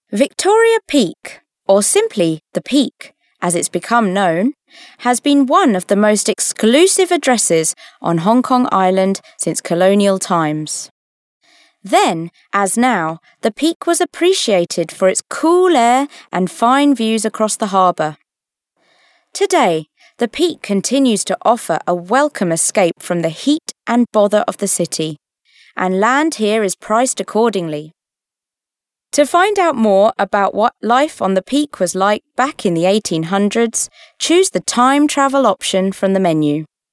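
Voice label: British female corporate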